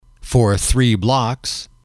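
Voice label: "for three blocks", English, male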